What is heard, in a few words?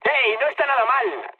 trick, mood high, speech; War Thunder